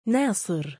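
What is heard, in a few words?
"naa9ir", Arabic, male; given name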